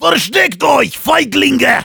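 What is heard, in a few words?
battlecry, German; Versteckt euch, Feiglinge!; Heavy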